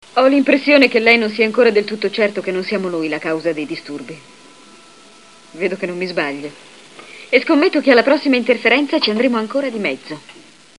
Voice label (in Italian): lei, voci italiane, doppiaggio; Daloket